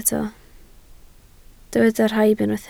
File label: "rhaib", Welsh